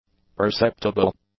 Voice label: "perceptible", English; adjectives